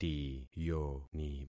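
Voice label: "tiyoni", concatenated syllables; Affixing experiment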